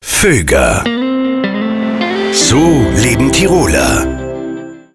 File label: LOGO VOX